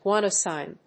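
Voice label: "guanosine", English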